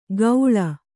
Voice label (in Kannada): "ಗೌಳ", Kannada